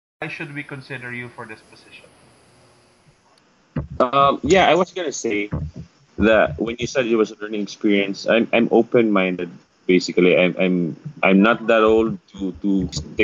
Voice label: fluency recording